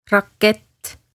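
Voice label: "Rakett" Estonian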